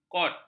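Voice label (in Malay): "kord", Malay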